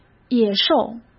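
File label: "野兽", Mandarin Chinese; hsk; chinese flashcards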